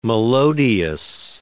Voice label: "melodious", English